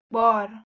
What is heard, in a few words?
"bar", Persian